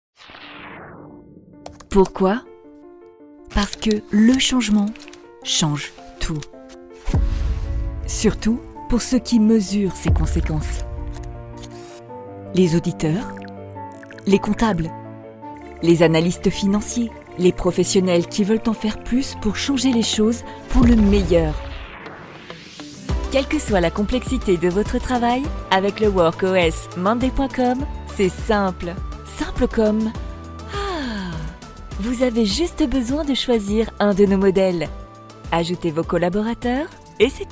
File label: Corporate promo